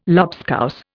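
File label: "lobscouse", Indian English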